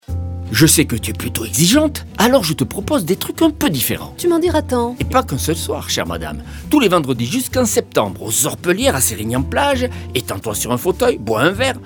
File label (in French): spot radio beziers jazz sous les etoiles; orpellieres